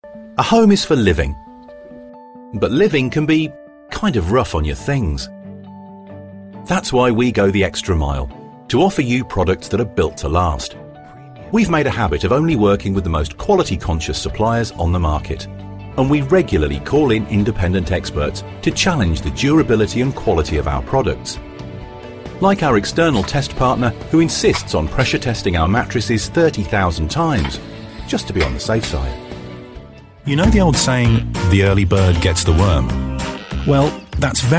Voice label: english british commercial, sarcastic; sarcastic voice actors